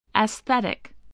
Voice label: "aesthetic", English voice